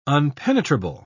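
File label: "unpenetrable", British English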